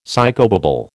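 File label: "psychobabble", American English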